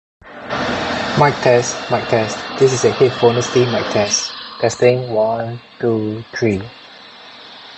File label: Noisy; Samsung Buds